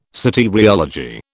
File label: "soteriology", British